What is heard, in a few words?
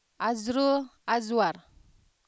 news